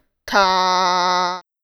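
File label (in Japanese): "た", Japanese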